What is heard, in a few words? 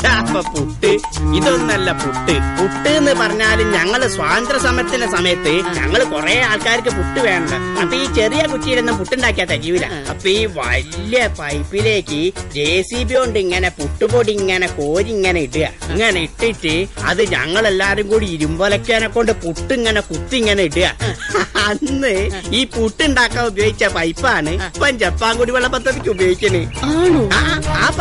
malayalam comedy dialogues